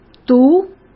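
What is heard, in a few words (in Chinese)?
"独", Mandarin Chinese; hsk; chinese-flashcards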